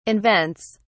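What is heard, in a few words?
"invents", British English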